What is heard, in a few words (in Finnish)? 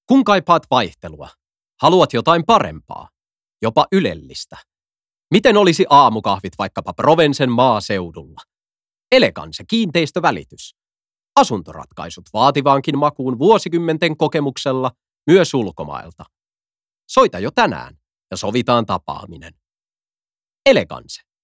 radio